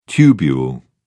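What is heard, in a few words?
"tubule", British English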